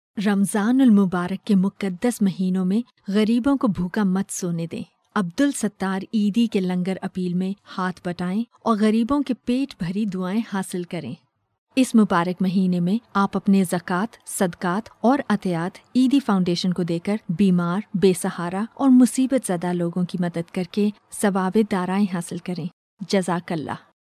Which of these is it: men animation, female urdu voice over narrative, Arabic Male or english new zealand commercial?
female urdu voice over narrative